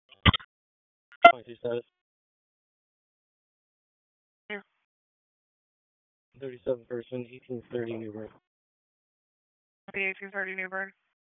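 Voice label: Raleigh Police Southeast District Dispatch, p25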